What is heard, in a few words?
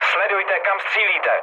player friendly fire, speech cze, mood low; War Thunder